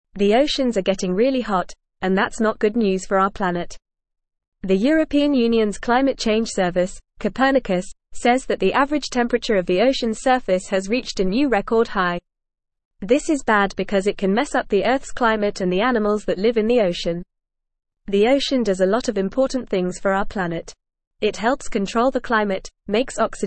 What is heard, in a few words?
English Upper Intermediate FAST Reading; Oceans Reach Highest Recorded Temperature Worsening; English Newsroom